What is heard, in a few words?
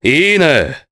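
Vox Happy, Japanese; Nicx; kingsraid; jp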